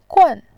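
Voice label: "gun", Mandarin Chinese, fourth tone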